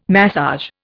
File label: "massage", Indian